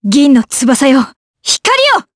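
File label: voice Skill, voices heroes, Japanese; Ripine; kingsraid audio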